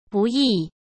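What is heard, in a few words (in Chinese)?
"不易", Mandarin Chinese; chinese flashcards; hsk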